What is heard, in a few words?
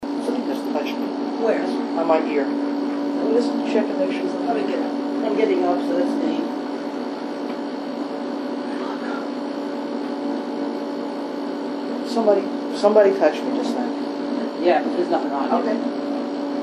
mans voice filter